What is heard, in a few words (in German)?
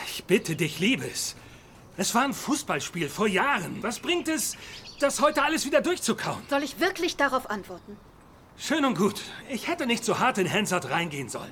Synchron Mix